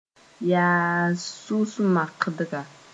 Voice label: "yaas-sus-makdga", Moore

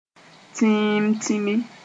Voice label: "tĩim-tĩmi", Moore; very black

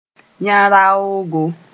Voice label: "yã-raoogo", Moore

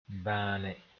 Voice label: "bãane", Mooré; be thin

